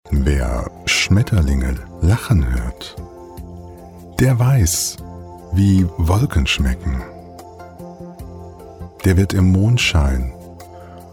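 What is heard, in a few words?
German, male, calm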